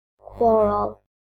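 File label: "quarrel", British English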